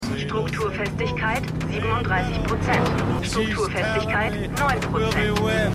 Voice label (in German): Computerstimme; Black Lightning